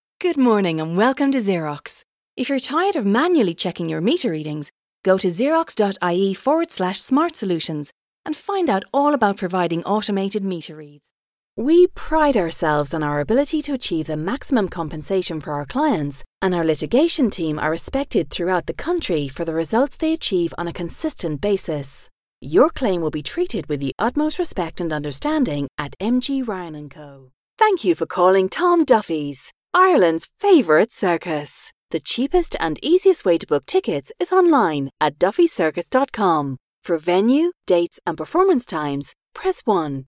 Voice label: Irish Mixed Voice Samples